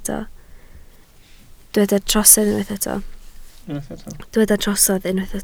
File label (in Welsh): "trosedd" Welsh